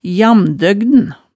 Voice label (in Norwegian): "jamdøggn", hemsedalsmaalet